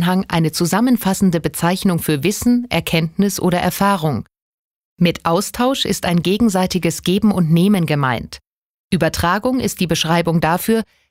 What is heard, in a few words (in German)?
Kommunikation Neutral